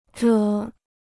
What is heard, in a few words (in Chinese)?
"着", Chinese